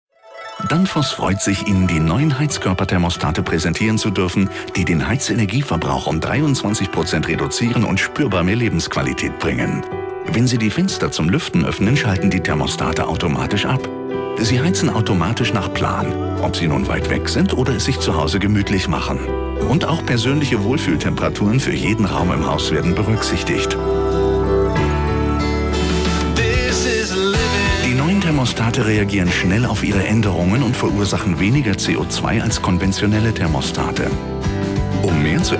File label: TV Commercials General public, German voice-over, Welcoming and Positive; Danfoss